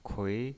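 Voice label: "kui", pinyin, Mandarin Chinese, second tone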